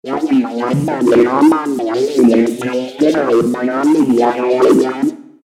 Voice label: alien speech

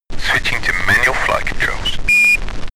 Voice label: astronaut chatter

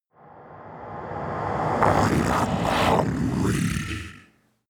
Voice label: demon dark voice reverse i am hungry

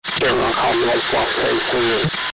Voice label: cockpit radio communication

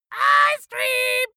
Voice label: dwarf scream ice cream english

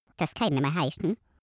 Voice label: cartoon male talk short

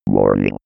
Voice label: male status report

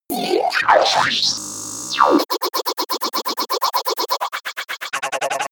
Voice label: crazy talk

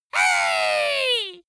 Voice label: dwarf insulted "hey"

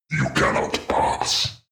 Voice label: demon dark voice thick speak you can not pass

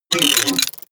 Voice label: robot voice short click

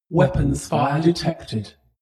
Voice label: female voice shuttle status report

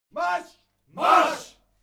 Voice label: military shout german "march"

